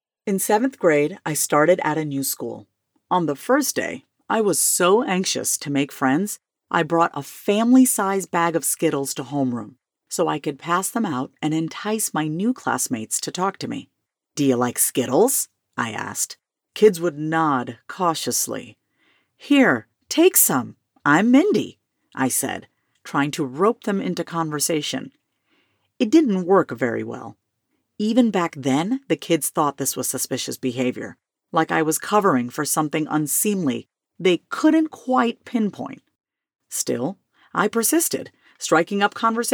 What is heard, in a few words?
comedic memoir